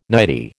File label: "nightie", American